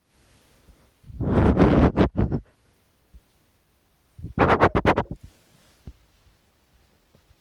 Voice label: Voice message; ddnet